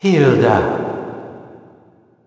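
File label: vox story, voices heroes English; Siegfried; kings raid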